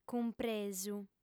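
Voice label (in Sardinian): "cumpresu", female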